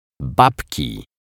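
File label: "babki", Polish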